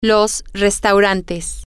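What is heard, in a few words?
Spanish, the restaurants, female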